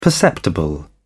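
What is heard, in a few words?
"perceptible", British English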